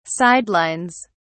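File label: "sidelines", British English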